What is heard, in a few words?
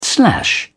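"slash", British English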